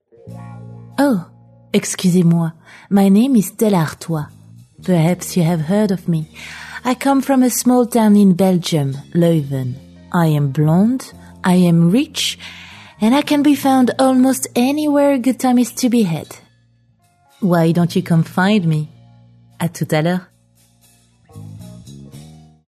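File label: French, female, English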